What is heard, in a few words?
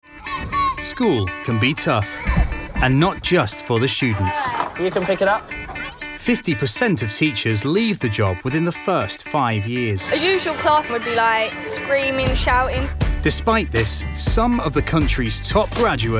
Narration